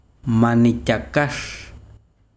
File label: besiro idioma, chiquitano